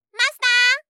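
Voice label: "マスター" Japanese; ASMR; 呼びかけ系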